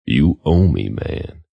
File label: You Owe Me Man, Attitude, Male